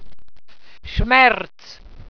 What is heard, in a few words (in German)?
"schmerz", walser